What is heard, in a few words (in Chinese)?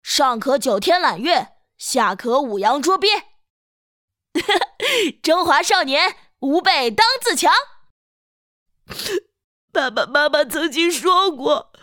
配音声线展示少年